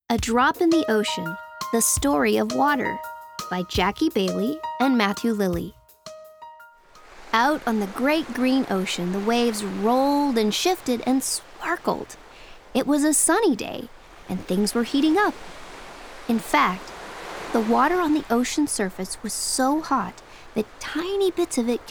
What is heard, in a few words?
kids narration